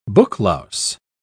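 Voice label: "booklouse", British English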